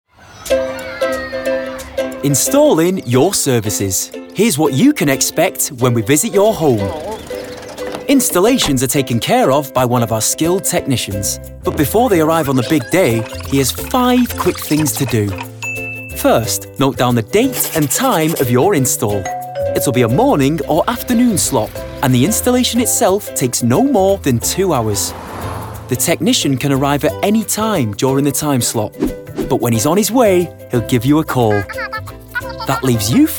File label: Corporate; Virgin Media; Vocal Point